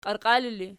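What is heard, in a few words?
"qiarqiali" ergative, Archi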